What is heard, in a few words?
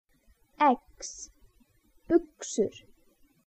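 "buxur", Icelandic; efni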